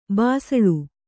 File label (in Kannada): "ಬಿಸಿಲ್", Kannada